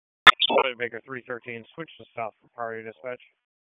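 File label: Police District Dispatch, p25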